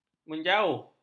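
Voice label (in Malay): "menjauh", Malay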